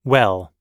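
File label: "well", American English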